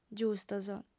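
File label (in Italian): "giustos", lingua sarda, female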